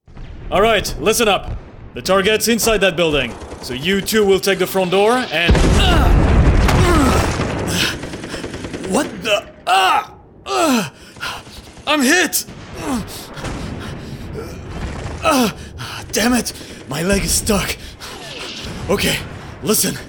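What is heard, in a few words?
Character Natural, English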